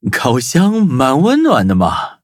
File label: loading, zh-hant; Cookie Run